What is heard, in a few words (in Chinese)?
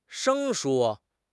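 "生疏", Chinese; HSK6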